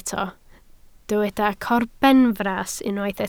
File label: "corbenfras", Welsh